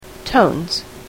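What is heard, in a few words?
"tones", English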